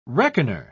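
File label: "reckoner", British English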